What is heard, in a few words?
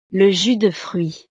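"le jus de fruit", French; snacks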